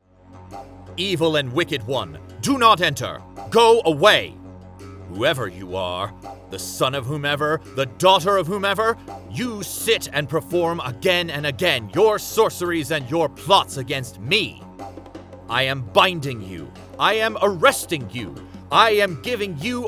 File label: Historical Source Dramatic Readings